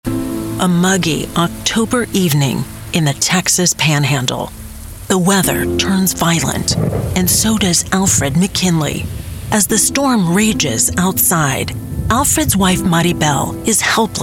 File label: TV Narration